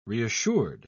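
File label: "reassured", British English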